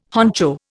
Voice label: "honcho", Indian

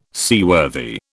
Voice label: "seaworthy", British

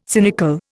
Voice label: "cynical", Indian

